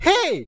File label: hey Loud; HeavenStudio; dj School